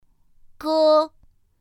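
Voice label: "g", Mandarin Chinese pinyin; pinyin2; pinyinji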